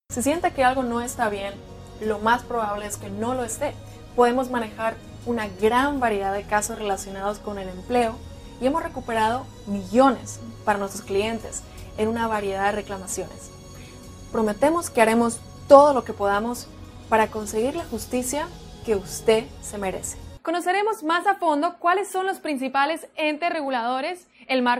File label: SPA V.O